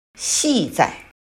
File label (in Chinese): "系在", 汉语